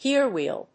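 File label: "gear wheel", English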